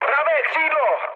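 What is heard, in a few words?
right wing, Czech, mood med; War Thunder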